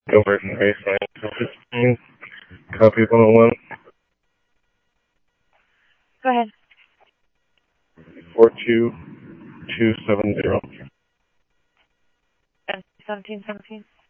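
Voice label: Mesa Police Department, scanner audio; Mesa Simulcast; MESA PD 3 Red Mt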